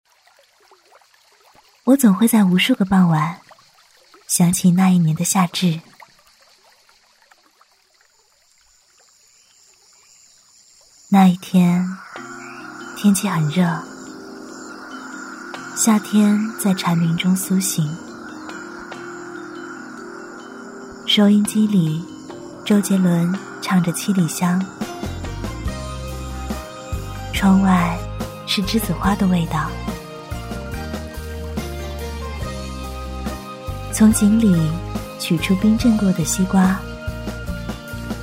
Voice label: 女, 独白, 温柔知性; 夏至

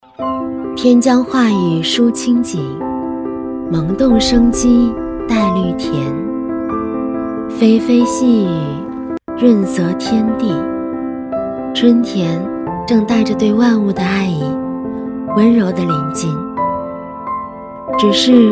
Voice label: 女, 二十四节气, 雨水, 温柔舒缓; 甜美亲和; 年轻舒缓

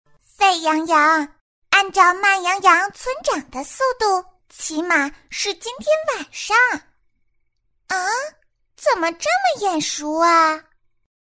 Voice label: 女 角色模仿 动画片; 美羊羊

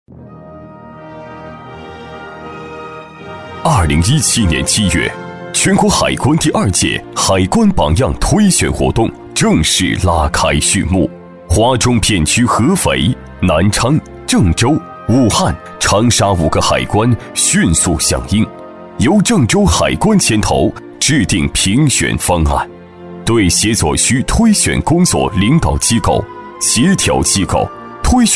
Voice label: 男 颁奖配音 大气颁奖; 海关; 厚重有力; 大气激昂

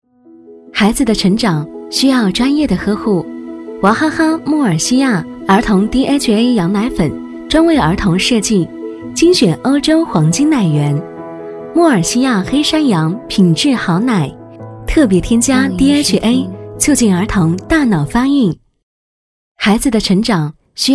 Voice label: 女 奶粉广告 羊奶粉 温柔; 年轻知性; 甜美亲切; 哇哈哈

